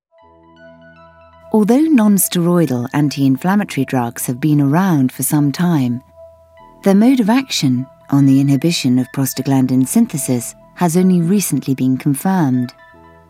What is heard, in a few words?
Medical Corporate